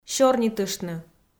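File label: "сёрнитыштны" Komi, female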